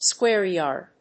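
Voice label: "square yard", English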